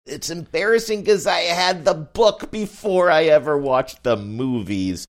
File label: saying its embarrassing cuz i had books before i ever watched movies funny